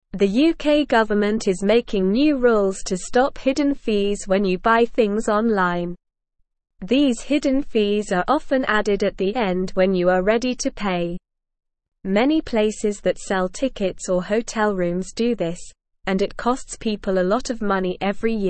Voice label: English Lower Intermediate SLOW Reading; New Rules to Stop Tricky Online Shopping; English Newsroom